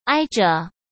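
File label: "挨着", Mandarin Chinese; hsk; chinese-flashcards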